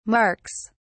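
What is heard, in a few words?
"marks", British English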